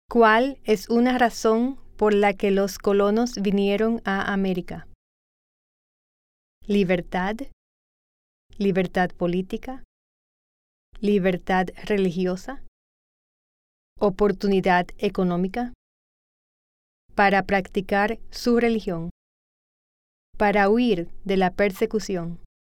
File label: Spanish